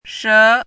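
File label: "she2", Mandarin Chinese syllable, pinyin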